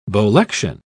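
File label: "bolection", British English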